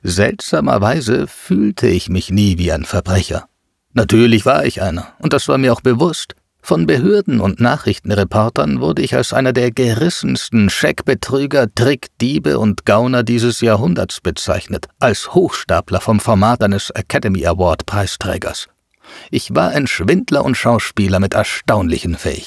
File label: german audiobooks